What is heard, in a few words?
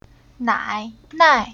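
"Nai", Chinese syllable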